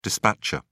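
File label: "dispatcher", English, British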